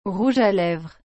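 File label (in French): "rouge à lèvres", French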